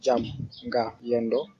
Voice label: good afternoon, wolof